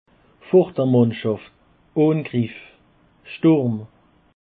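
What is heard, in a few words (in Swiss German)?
"sturm", Alsatian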